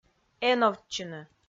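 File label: "эновтчыны", Komi, female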